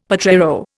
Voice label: "potrero", Indian; Dictionary